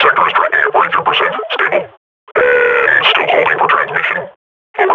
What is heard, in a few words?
idle, grunt; Half Life Model Pack